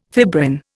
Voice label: "fibrin", Indian